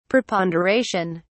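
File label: "preponderation", British English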